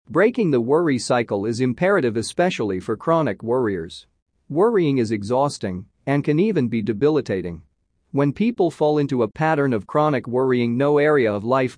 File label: Audio Male; Worry